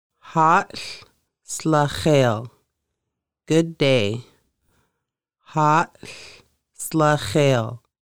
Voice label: good day, Lushootseed; Conversational Lushootseed: Lessons 1-5